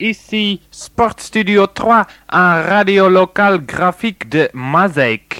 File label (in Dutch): Jingle Franstalig; Sportstudio 3